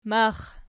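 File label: "max" nominative, Archi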